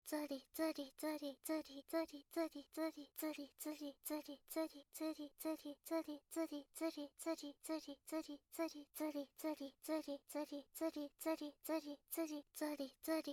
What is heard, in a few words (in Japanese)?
ずりずりボイス, 汎用エロボイス; おまけ音声（汎用指示ボイス・汎用エロボイス）